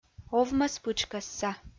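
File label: "овмӧспытшкӧсса", Komi, female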